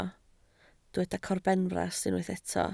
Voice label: "corbenfras", Welsh